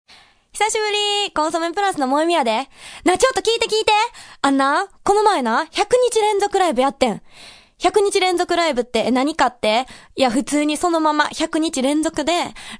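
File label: Narration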